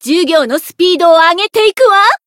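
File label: Voice growth, Japanese; sherinu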